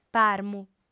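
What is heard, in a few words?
"parmu" Sardinian, female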